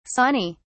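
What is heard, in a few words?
"sawney", English, British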